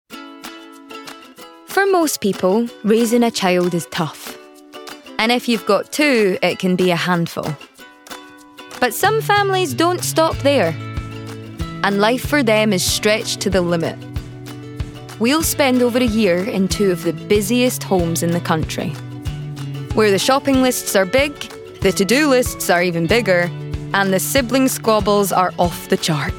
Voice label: Documentary